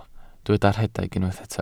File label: "rhedeg", Welsh